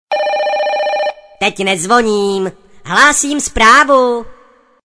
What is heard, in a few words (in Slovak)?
sms hlásky cz